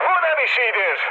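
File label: Turkish trick, mood high, speech; War Thunder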